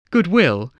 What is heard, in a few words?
"goodwill", spelling